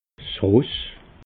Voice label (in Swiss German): "ross", alsace